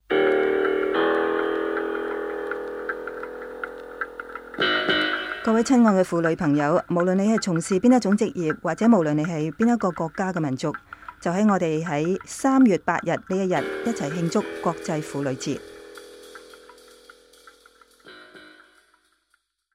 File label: Chinese